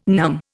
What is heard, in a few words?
"numb", Indian